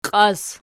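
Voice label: "qias" nominative, Archi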